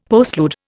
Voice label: "postlude", Indian; Dictionary